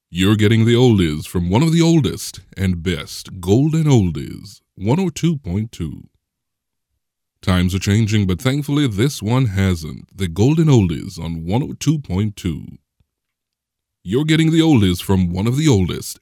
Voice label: Radio liners Oldies radio station